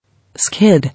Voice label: "Skid", English